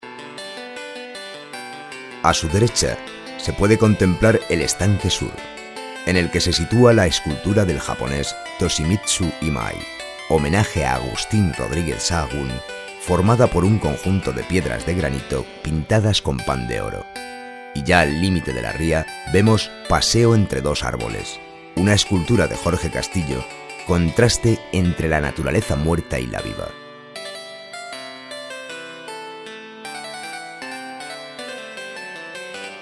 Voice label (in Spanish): audioguia multimedia